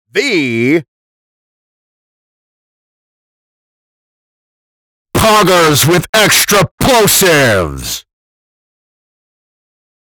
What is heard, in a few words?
plosives